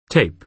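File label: "tape", American English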